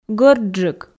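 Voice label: "гӧрдджык", Komi, female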